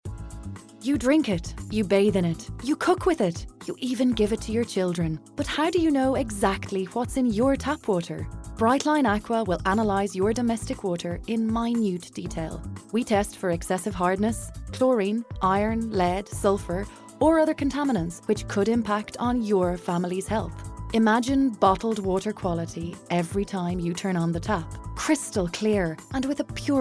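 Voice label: Irish F Commercial, female, accent of Ireland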